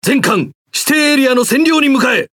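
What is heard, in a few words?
Speech Quick Commands Enemy Point, Japanese; World Of Warships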